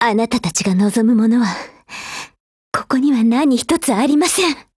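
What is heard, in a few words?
BREAK, Japanese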